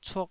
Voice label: "cuk2", Taiwanese syllable

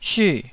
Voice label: "syu4", taiwanese Syllables

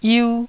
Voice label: "yiu1", taiwanese

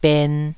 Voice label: "ben2", taiwanese

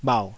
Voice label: "bhauh3", taiwanese

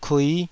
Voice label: "kui5", Taiwanese syllable

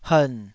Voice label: "hern3", Taiwanese syllable

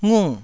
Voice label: "ngu4", taiwanese syllables

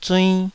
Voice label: "zuinn2", taiwanese

